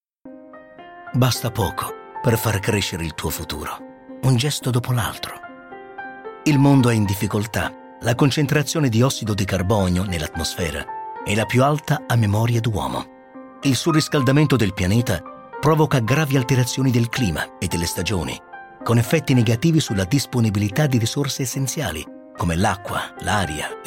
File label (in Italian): Spot